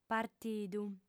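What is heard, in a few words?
"partidu", Sardinian, female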